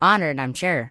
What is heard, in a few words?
Gnome Male Young Greeting; NPC